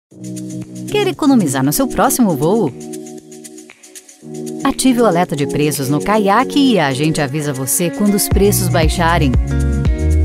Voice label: portuguese brazilian promos